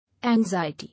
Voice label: "Anxiety", English